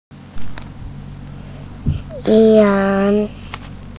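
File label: "yang2", Mandarin Chinese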